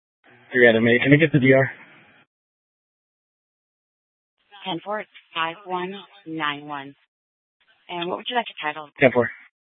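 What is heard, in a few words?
Scottsdale Police Department South Dispatch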